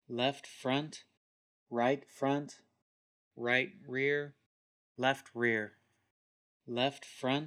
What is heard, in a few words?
binaural Slates